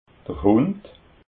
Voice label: "hund", alsace

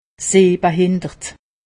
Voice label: "sehbehindert", alsace; medecine

